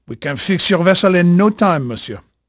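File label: French male, voice; Pirates Of The Caribbean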